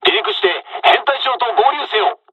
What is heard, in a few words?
dialogs japanese; midway jpn; takeoff; War Thunder